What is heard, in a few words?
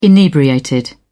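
"inebriated", British English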